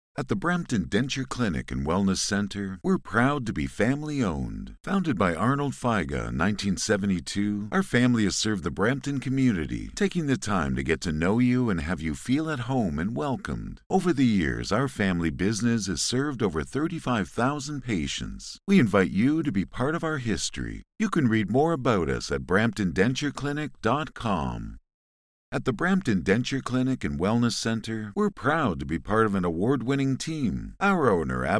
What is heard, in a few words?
english canadian ivr